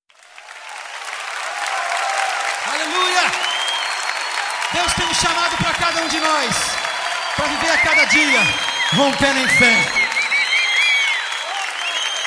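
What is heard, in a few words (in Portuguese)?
Evangélica Aniversário de Irma, female, telemensagens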